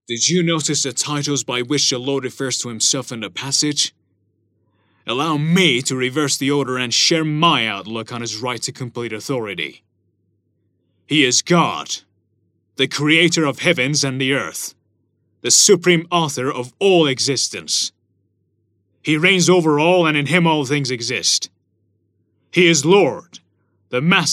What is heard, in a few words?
angry upset, voice sample